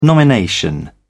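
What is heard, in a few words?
"nomination", British English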